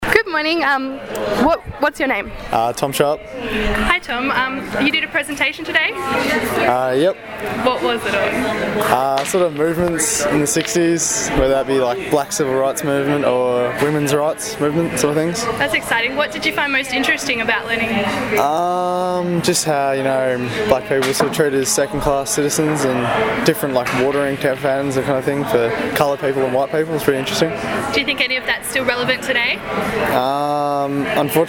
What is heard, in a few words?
vox pop; social movements